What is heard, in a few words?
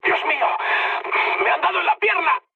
damaged pilot, mood high, speech; War Thunder